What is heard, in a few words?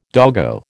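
"doggo", American English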